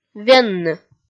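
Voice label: "венны", Komi, female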